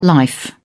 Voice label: "life", English

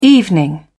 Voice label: "evening", English

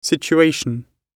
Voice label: "situation", English

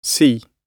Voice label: "see", English

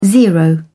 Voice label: "zero", English

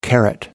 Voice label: "carat", English

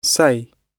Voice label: "say", English

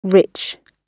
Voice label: "rich", English

